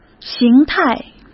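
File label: "形态", Mandarin Chinese; chinese-flashcards; hsk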